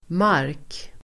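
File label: "mark", Swedish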